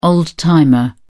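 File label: "old-timer", British English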